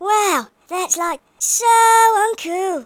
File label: just you wait, speech, Hippy